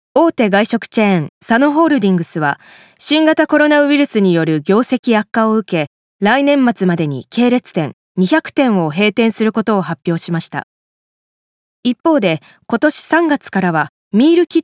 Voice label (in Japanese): ニュース